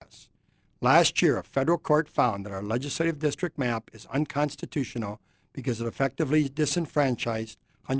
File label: Radio